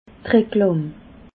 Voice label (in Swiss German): "reklam", alsace